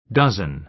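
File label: "dozen", American English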